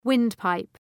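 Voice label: "windpipe", English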